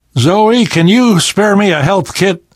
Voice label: player suggest health specific, left4dead survivor voice namvet